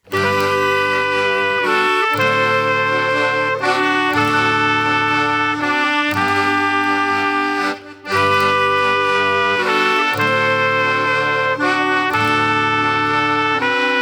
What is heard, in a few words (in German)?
Mundart; Glaesje-Milde